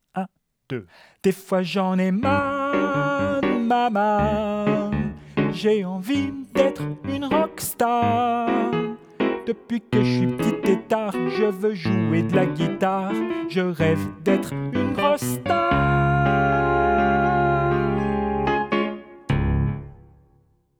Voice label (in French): piano voix; refrain chanson, voix + accompagnement piano; Opéra Orchestre national Montpellier Occitanie